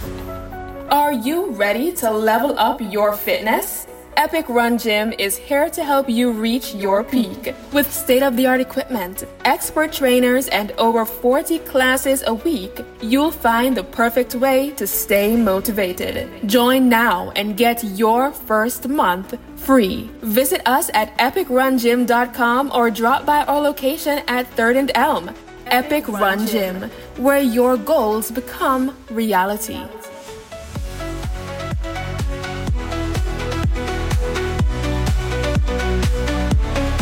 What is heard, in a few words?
Gym Ad; Epic Run Gym